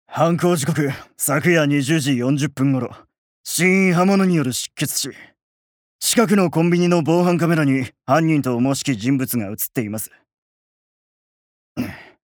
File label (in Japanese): 外画